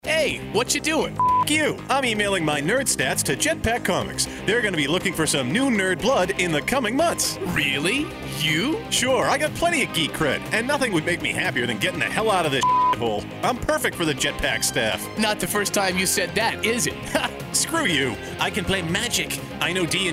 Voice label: Hiring commercial; Jetpack